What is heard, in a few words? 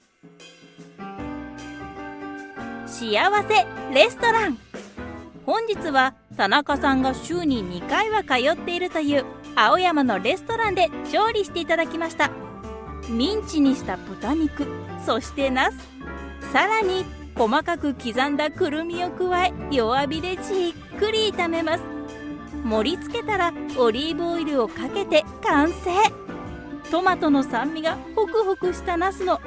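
F, Japanese, female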